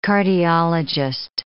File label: "cardiologist", English